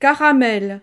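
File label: "caramel", French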